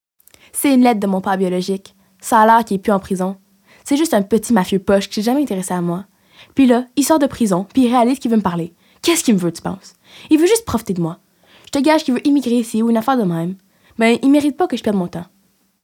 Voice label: FR; FR 2023 - La lettre